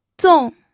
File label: "zong4", pinyin